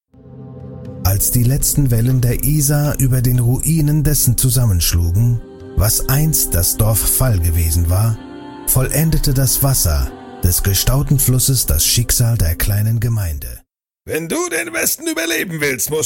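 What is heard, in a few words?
German, male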